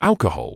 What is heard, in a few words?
"alcohol", medical english uk; ˈæl.kə.hɒl; AL-kuh-hol